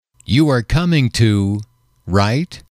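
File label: you are coming to right, male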